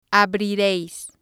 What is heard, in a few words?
"abrireis", spanish